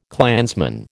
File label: "clansman", American